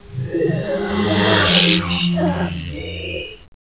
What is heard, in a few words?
worship me, ambience